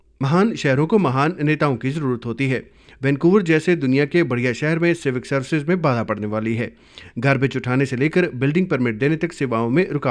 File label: Hindi radio ad